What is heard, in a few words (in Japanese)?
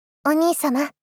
お兄様 少女×中音域